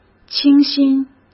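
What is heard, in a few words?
"清新", Mandarin Chinese; chinese flashcards; hsk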